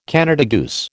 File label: "Canada goose", American